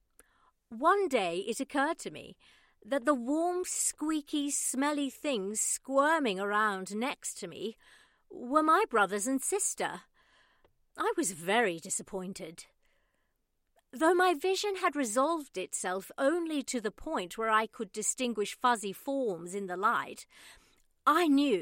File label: Adult Fiction Sample